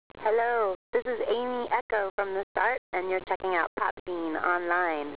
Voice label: echo